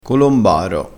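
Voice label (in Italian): "colombaro", dialettale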